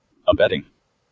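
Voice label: "abetting" US English; pronunciation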